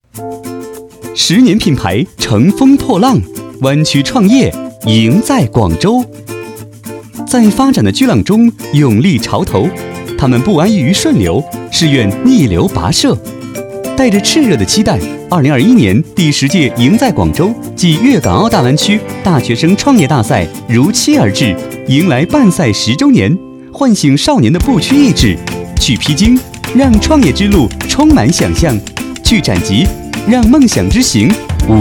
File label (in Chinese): 男 科技感; 广州